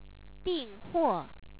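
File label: "di4nghuo4", Chinese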